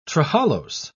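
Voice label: "trehalose", British English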